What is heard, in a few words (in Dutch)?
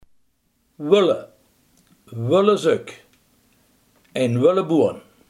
"wollen", limburgse